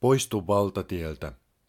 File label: Finnish male; Opas; Mw Exit